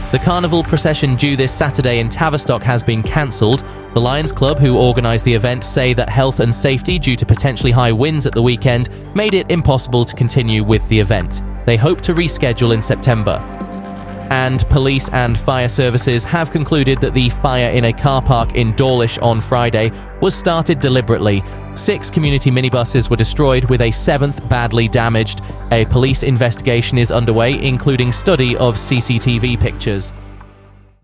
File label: uk radio news